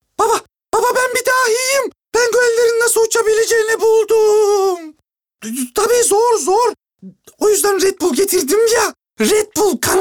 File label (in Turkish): ANIMASYON